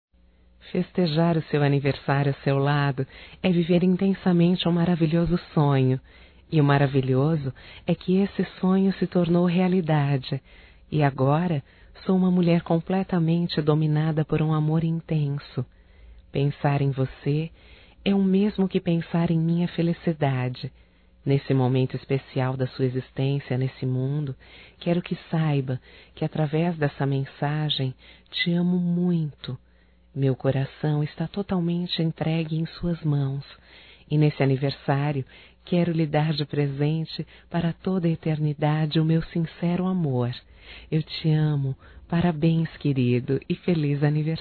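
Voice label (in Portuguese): romântico female, Telemensagens Aniversarios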